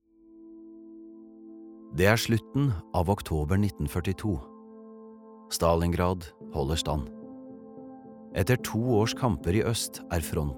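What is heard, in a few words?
Norwegian dokumentar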